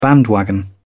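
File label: "bandwagon", British English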